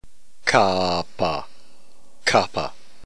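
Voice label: "kappa", New Testament Greek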